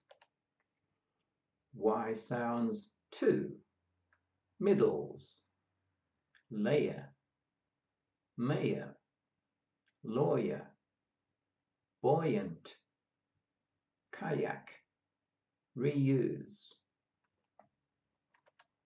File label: Y sounds middles, pronunciation of australian english